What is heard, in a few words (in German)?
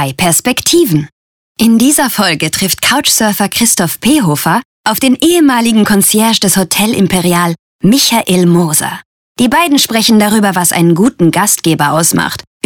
Moderation Podcast; Bahoe